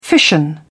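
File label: "fission", British English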